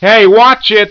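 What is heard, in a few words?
gangster grenade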